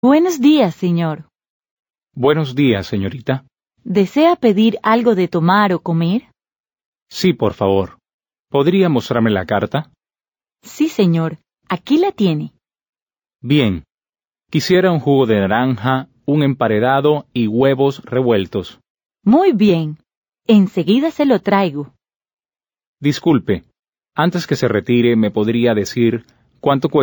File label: Dialogue, Spanish; Learn Conversational Spanish Now